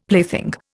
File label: "plaything", Indian; Dictionary